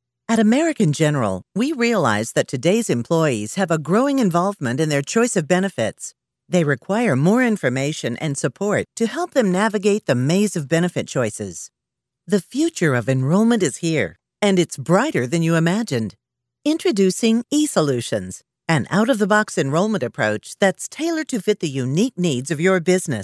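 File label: eLearning Female, Rich, Solid, Natural; Narration, eLearning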